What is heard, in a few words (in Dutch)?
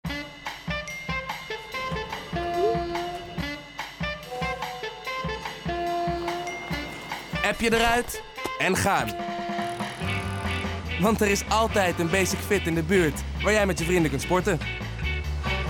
sportief, voice-over; Basic-Fit